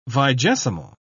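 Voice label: "vigesimal", British English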